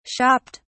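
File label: "shopped", British English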